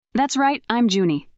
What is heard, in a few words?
fem